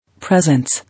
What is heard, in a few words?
"Presence", English